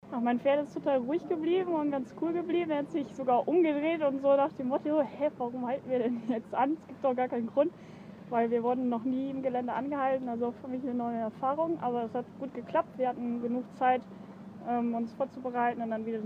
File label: ger; Longines Auffarth Sat